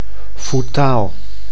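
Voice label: "foetaal", Dutch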